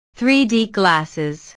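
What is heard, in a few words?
"3D glasses", English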